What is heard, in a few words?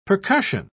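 "percussion", spoken word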